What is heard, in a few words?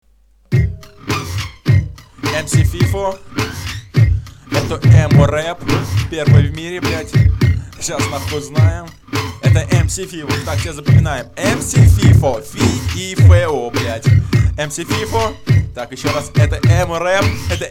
emo-rap